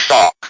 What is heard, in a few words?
speak and spell "CHALK"; WORDS